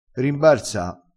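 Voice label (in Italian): "rimbarza", dialetto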